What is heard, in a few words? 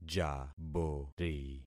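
"jabori", concatenated syllables; Affixing experiment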